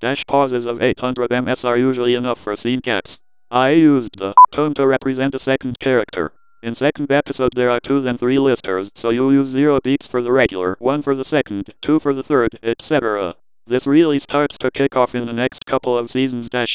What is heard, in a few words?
voicing standard, Without Music; Red Dwarf